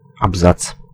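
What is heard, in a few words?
"Absatz", Russian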